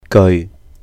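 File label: "geui", Cantonese; sentence; measure word of sentence; 句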